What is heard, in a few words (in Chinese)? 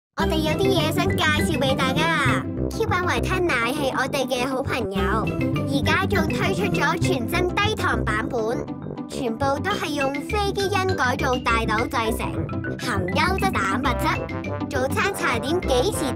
男童; 維他奶Q版125毫升 x Peppa Pig